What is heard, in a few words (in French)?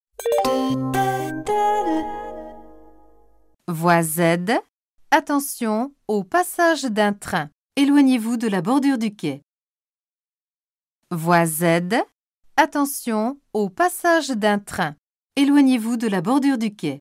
annonce train; sncf